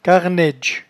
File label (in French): "carnaidge", patois jura